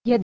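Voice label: "jed", Old Church Slavonic Slovak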